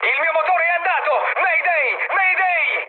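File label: Italian damaged engine mood high, speech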